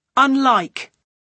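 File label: "unlike", British English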